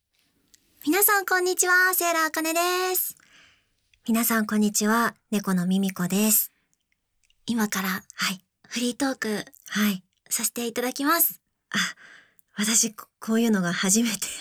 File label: freetalk, ASMR; 七夕百合物語